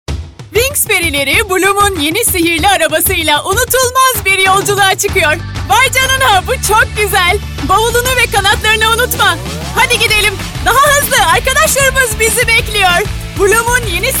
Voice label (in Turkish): reklam; winx